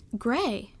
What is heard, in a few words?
"grey", American, Conversation